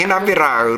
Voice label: "henabera", 南山形ことば

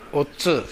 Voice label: "ottu", minami yamagata

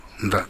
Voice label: "ndazu", minami yamagata

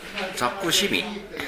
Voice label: "zakko", minami yamagata

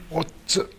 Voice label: "ottu", minami yamagata

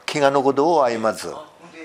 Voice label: "aimazu", minami yamagata

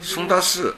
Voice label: "sodasu", minami yamagata

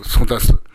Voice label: "sodasu", minami yamagata

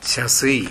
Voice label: "shasue", minami yamagata

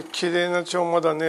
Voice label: "chooma", minami yamagata

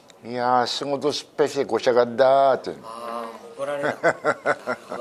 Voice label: goshagu, minami yamagata

